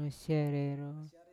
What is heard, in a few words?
"masiarero", how, Yawa